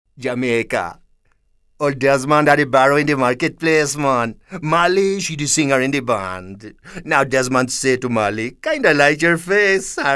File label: JAMAICAN